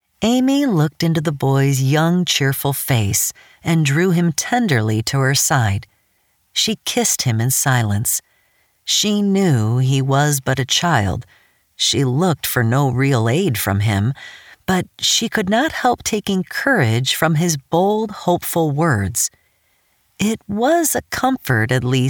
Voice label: Audiobook; Amy and Her Brothers